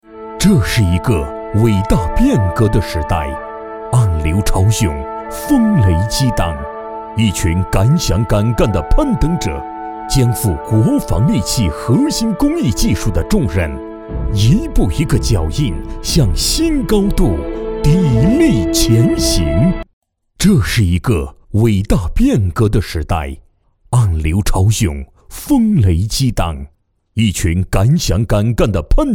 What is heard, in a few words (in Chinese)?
专题这是一个伟大变革的时代